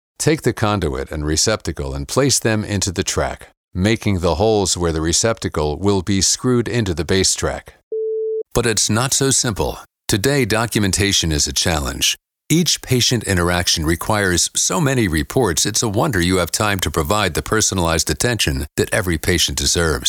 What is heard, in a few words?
Voice Over Artist eLearning